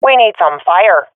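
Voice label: request fire, swat female; vo; Counter Strike Model Pack; CS:GO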